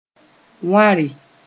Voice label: "wãre", moore

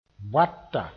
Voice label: "boata", moore; bin

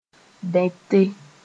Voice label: "bẽte", moore; trap

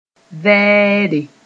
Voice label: "vɛɛre", moore

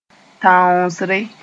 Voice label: "tãoosre", moore; hunt

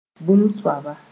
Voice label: "bũmb soaba", moore

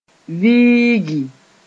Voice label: "viigi", moore

